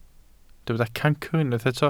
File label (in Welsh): "cancr", Welsh